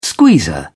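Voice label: "squeezer", British English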